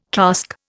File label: "casque", Indian